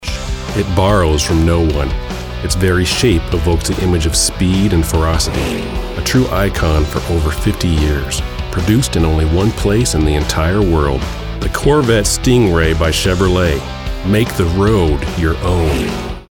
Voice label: voice over; chevrolet